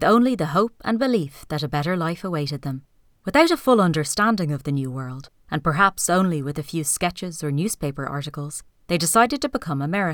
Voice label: Irish